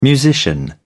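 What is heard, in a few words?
"musician", British English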